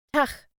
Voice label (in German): "Tach", German; hello in german